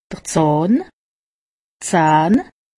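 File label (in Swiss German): "zahn", Alsatian; medecine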